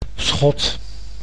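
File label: "schot", Dutch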